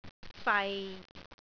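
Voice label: "fai6", Cantonese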